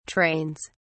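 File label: "trains", British English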